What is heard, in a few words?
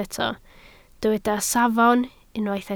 "safon", Welsh